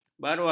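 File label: "barua", Malay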